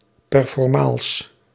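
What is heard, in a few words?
"performaals", Dutch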